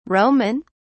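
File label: "Roman", British English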